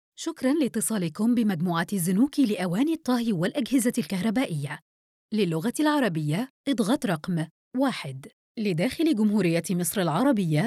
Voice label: IVR Arabic

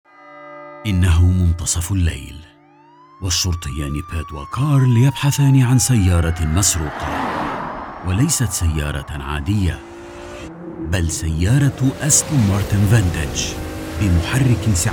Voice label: male Modern Standard Arabic

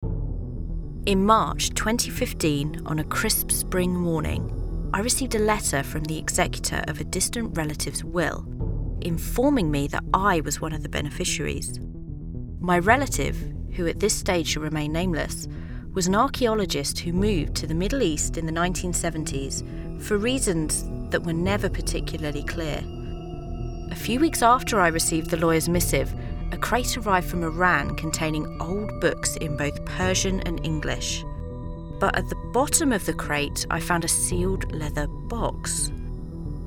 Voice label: audiobook

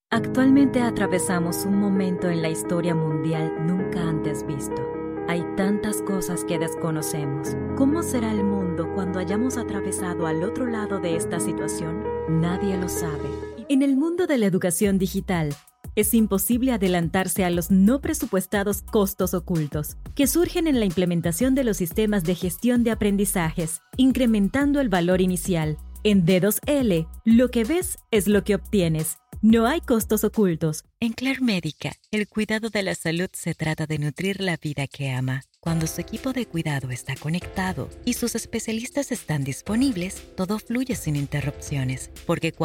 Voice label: Latin American Spanish Corporate Voiceover

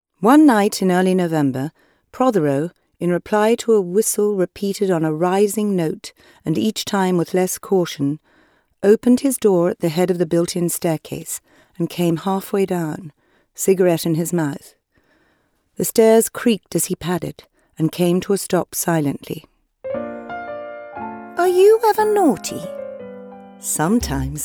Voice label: Nar Doc Poem

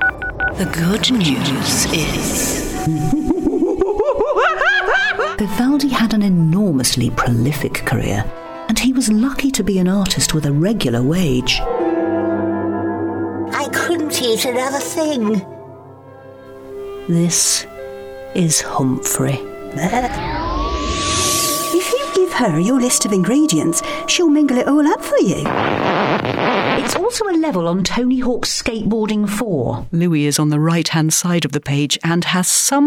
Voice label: Character and Narrative

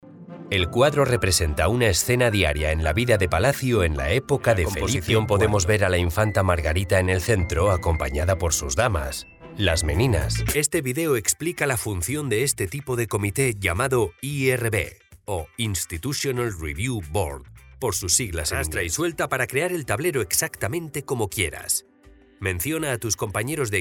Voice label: CASTILIAN SPANISH E-LEARNING

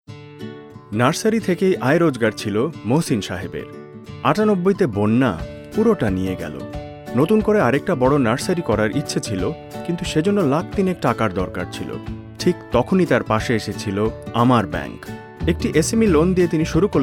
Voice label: Bengali Commercial VO

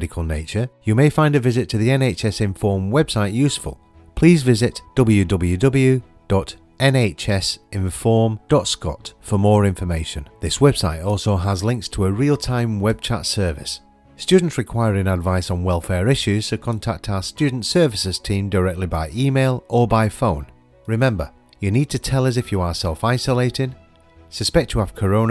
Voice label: with music; covid

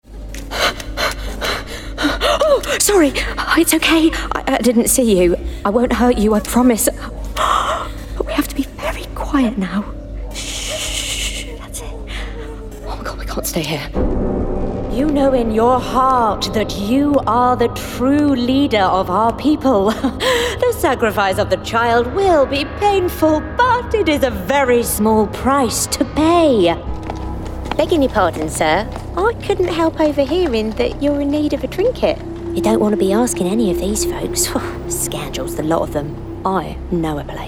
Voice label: Gaming Character

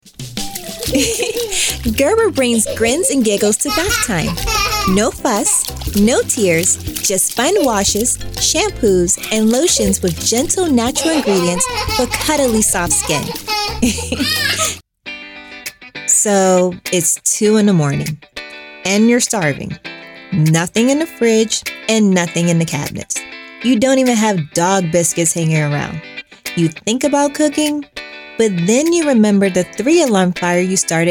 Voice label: Commercial

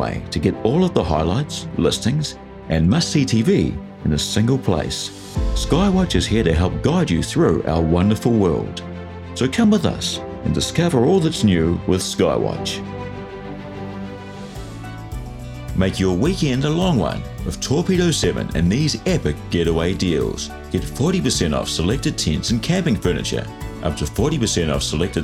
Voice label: Commercial reel

